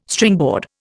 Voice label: "Stringboard", Indian